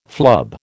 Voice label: "flub", American